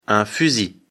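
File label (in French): "fusil", French; afrikalan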